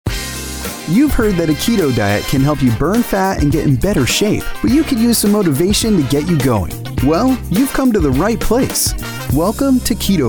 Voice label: english american podcasts